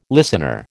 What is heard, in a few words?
"listener", American